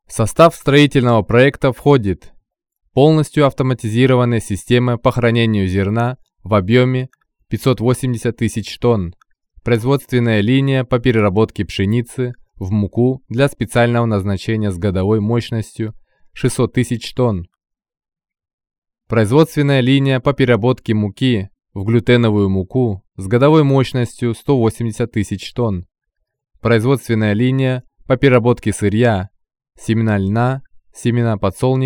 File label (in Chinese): 外籍俄语男; 锐意开拓的华意人